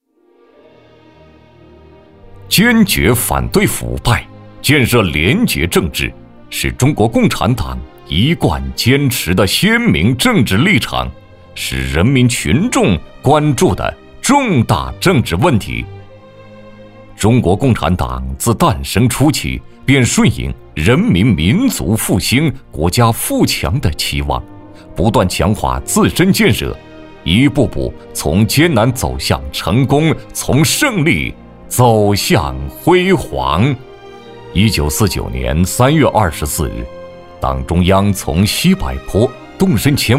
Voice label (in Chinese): 男 反腐永远在路上 大气 坚定